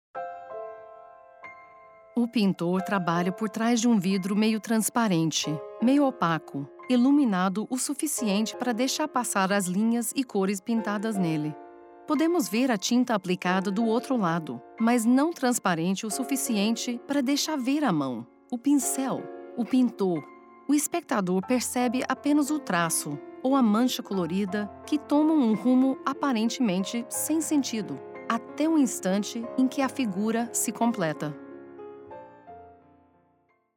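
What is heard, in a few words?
Portuguese Brazil Narration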